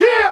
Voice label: "yeah", group of men